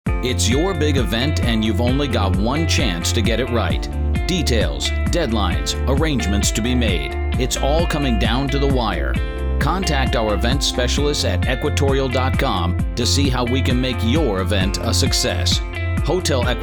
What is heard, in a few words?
Hospitality Commercial